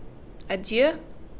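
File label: "adieu", Canadian, female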